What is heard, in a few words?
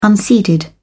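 "unseeded", British English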